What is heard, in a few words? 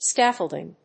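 "scaffolding", English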